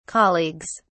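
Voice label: "colleagues", British English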